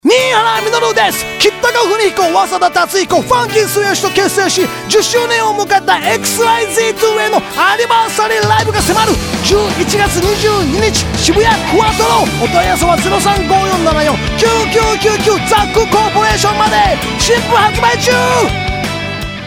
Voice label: Spot With Music